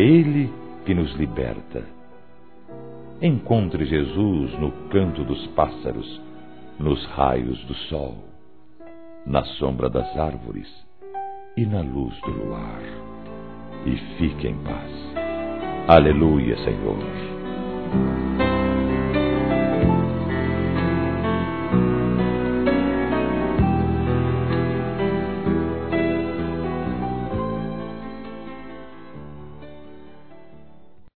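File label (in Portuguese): PARABÉNS IRMÃ EM CRISTO, Gospel, fem; Telemensagens; Telemensagens 2017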